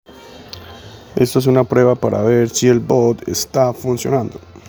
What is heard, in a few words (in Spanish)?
nota de voz